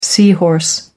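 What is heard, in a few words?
"sea horse", English, British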